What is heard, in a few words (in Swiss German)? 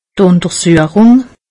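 "untersuchung", Alsatian; medecine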